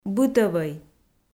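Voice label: "бытӧвӧй", Komi, female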